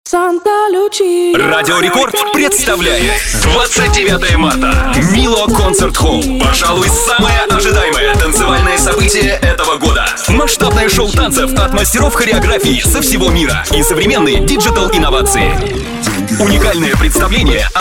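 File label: Russian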